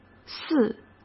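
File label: "寺", Mandarin Chinese; chinese-flashcards; hsk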